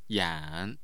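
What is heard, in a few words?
"yan3", Mandarin Chinese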